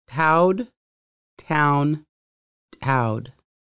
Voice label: town, conversational Lushootseed